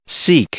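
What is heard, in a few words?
"seek", English, male; verb; i-ɪ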